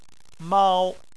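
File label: "mao" first tone, Chinese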